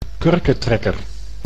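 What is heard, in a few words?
"kurketrekker", Dutch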